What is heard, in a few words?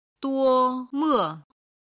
"duo1me5", Chinese